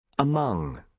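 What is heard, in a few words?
"among", British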